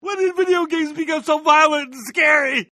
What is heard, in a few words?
scary vo; ralph; heros duty